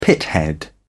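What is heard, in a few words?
"pithead", British English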